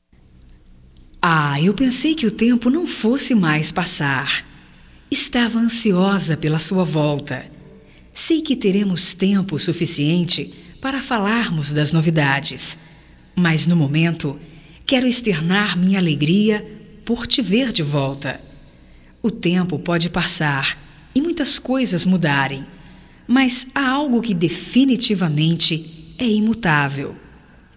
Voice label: BOAS VINDAS GERAL, fem, Voz; Telemensagens 2017

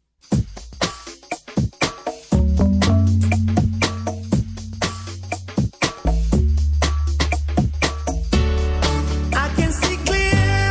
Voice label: DECLARACAO FEM; Telemensagens; Declaração de amor; Telemensagens 2017

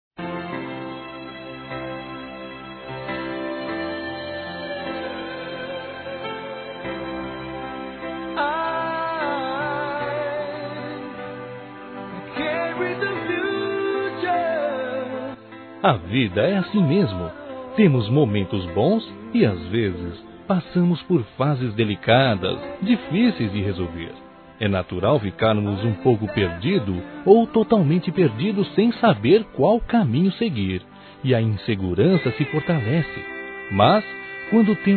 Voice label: AGRADECIMENTO PELO APOIO, masc; Telemensagens; Convites e Agradecimentos; Telemensagens 2017

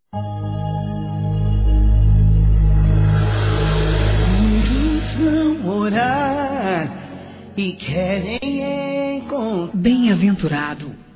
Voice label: Aniversário Neutro Evangelico Feminino

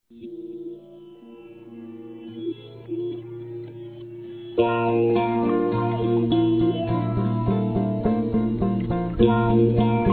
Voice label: Acredite em Você Masculino, Telemensagens; Otimismo

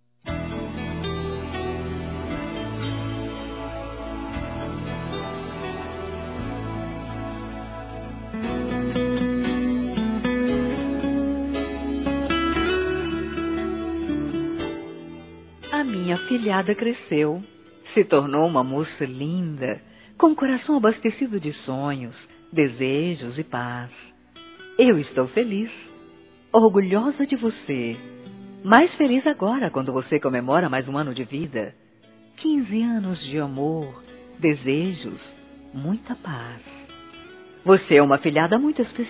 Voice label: MADRINHA F; Aniversários; Telemensagens

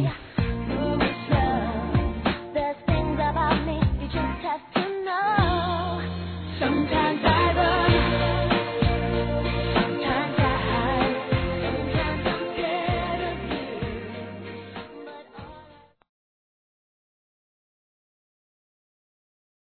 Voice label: Aniversário Feliz Aniversário Feminino; Aniversários; Aniversários diversos

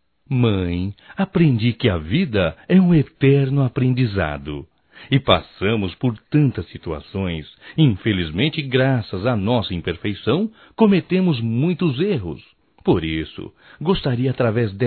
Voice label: Dia das Mães Desculpas Masculino; Telemensagens 2017

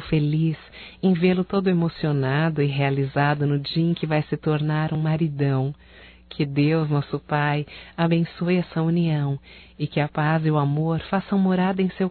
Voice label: PARABENS PELO CASAMENTO DO FILHO, fem; Casamentos; Telemensagens 2017

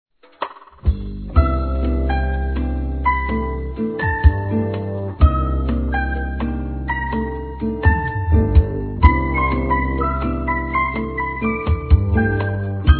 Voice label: Volta Logo Masculino; Telemensagens; Dia Dos namorados; Telemensagens 2017

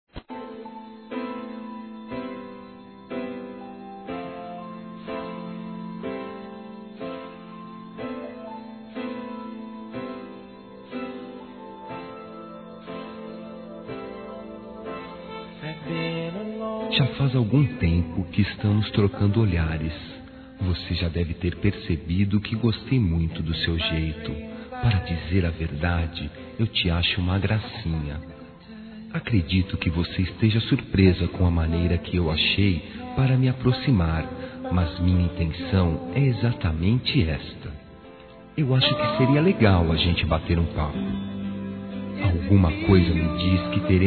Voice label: PAQUERA M; Telemensagens 2017

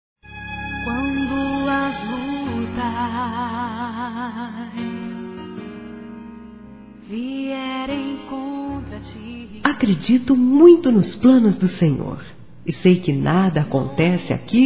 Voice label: Evangélico Aniversario Romantico Suave Feminino; Aniversários

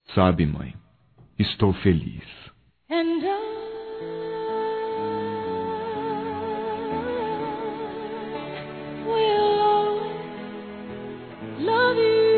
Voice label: MÃE VOCÊ VAI SER AVÓ, Telemensagens, Maternidade, masc

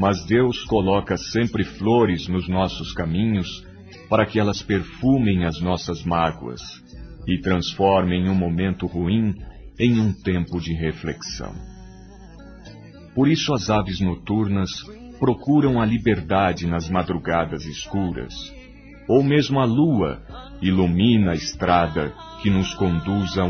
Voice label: DESCULPE AMIZADE M; Desculpas; Telemensagens 2017

